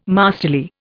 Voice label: "masterly", Indian